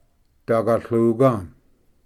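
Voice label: "dagatluga", Cherokee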